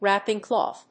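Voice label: "WRAPPING CLOTH", English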